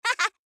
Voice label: Luna Emote Positive